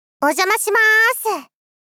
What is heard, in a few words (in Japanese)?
おじゃましま～す！ 少女 中音域